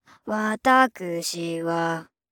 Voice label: pitch accent